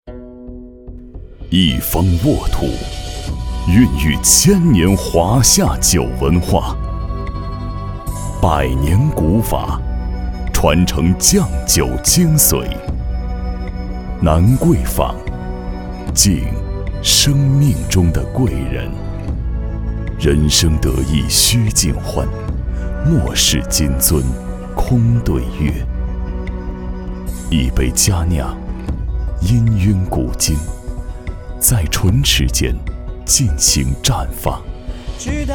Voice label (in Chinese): 男 宣传片 酒 厚重; 南贵坊